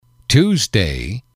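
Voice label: "tuesday", English, male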